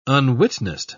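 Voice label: "unwitnessed", English, British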